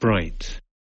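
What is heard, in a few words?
"bright", English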